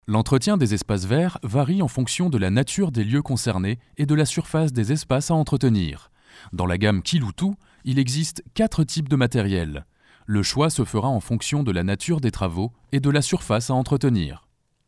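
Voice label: French Narration; GoLocalise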